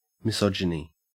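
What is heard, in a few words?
"misogyny", Australian English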